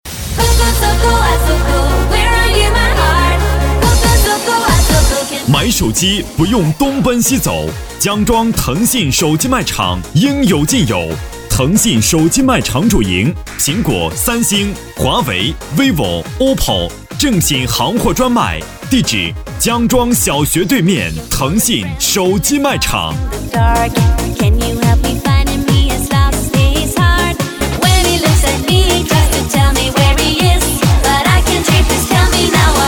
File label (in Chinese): 【促销】姜庄腾信手机男 激情